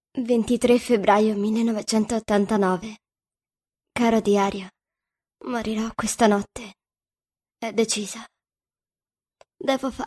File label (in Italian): audiolibri